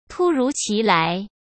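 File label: "突如其来", Mandarin Chinese; chinese-flashcards; hsk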